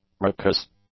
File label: "racous", English; adjectives